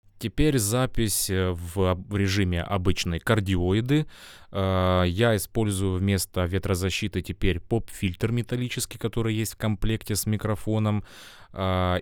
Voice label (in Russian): lewitt lct 640 ts kardioida; Lewitt LCT 640 TS — это конденсаторный, широкомембранный, микрофон за 1000$; Кардиоида